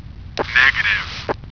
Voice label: negative, taunts; prophunt